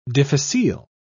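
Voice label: "difficile", en, British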